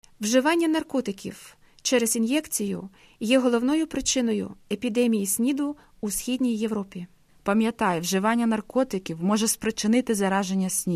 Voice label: spot ukrainian